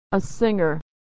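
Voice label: "a singer", American English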